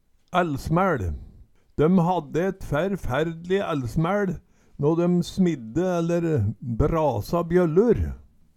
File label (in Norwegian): "ællsmæL", Numedalsmål